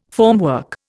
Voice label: "formwork", Indian